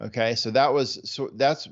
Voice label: stutter

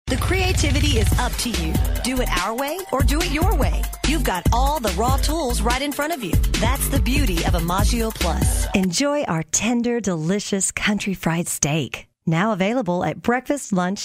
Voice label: commercial

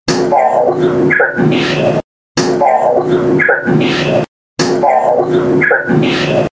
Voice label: old funeral home adults in church; faribault

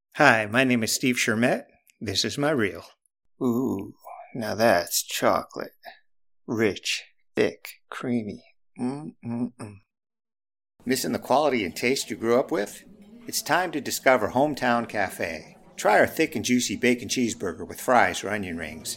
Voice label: vo commercial

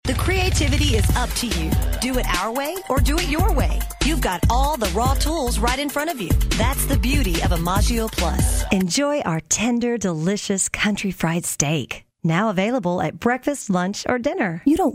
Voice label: voiceover

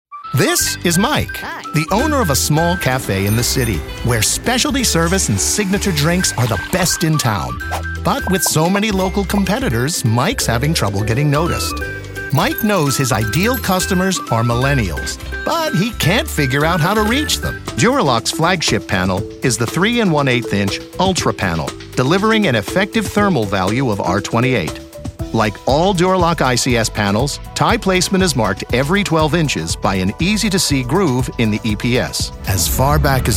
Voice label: narration

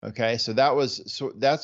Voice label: stutter after

